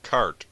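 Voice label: "cart", American English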